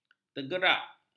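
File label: "tergerak", Malay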